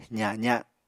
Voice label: "zaza", Apatani